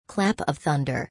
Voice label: "Clap of Thunder", English; thunder-and-lightning-in-english